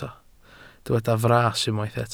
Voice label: "fras", Welsh